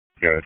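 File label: Dispatch 1, P25; WSO DISP 1; wake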